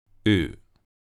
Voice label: short vowel sound ʊ, received pronunciation

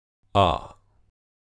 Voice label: short vowel sound ʌ, receivedpronunciation

